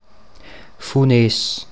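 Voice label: Funés dialect; Funés Mundart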